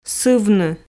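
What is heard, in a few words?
"сывны", Komi, female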